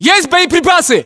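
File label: status check, battlechatter, Russian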